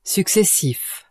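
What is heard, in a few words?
"successif", French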